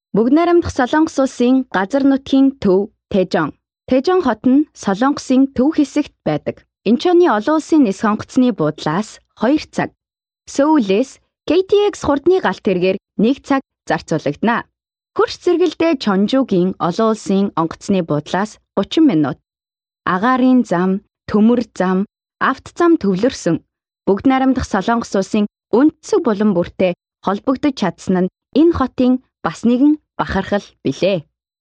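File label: Mongolian, female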